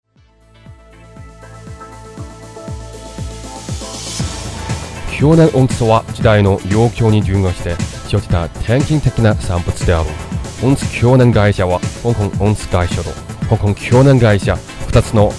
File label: locutor japones, japanese voice over